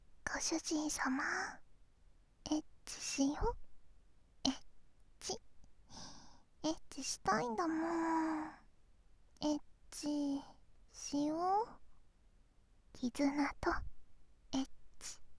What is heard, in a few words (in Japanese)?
エッチしよ はにかみ, 左, バイノーラル; ハーレムオナサポ 4人のメイドがご主人様のオナニーをお手伝いするバイノーラル; DL製作班